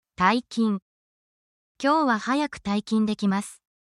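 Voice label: Japanese word, japanese phrases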